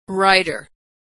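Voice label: "writer", American English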